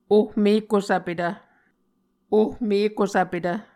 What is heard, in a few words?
"ohmekusvpetv", Muscogee